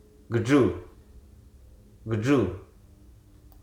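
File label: "kick", spoken word; hruso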